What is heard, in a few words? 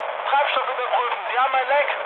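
German tank damaged mood med, speech; War Thunder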